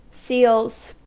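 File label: "seals" English, US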